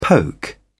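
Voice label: "poke", British English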